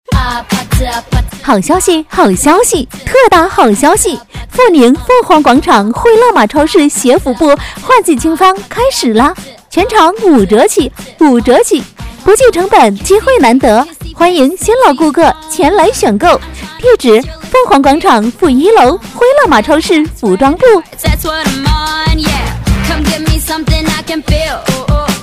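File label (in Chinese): 女促销 超市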